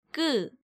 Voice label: "Kkeu", Korean